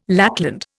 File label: "lackland", Indian